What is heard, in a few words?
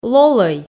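"лолӧй", Komi, female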